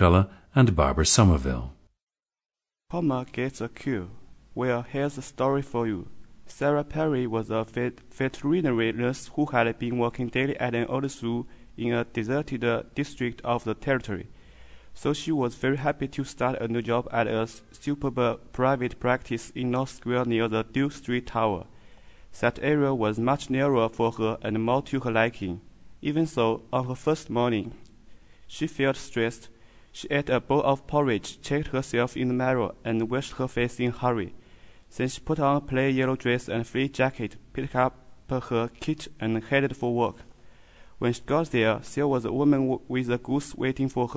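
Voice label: jiangsu, dialects of English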